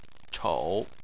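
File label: "chou" third tone, Chinese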